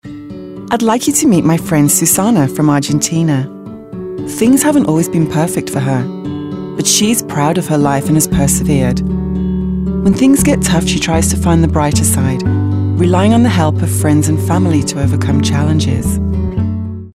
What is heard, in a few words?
Sincere